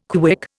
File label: "KWIC", Indian